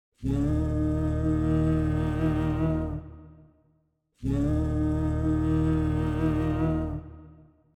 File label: Harmony B, vocal effects